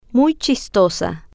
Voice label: "muy Chistosa", spanish